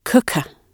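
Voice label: "cooker" spoken, British English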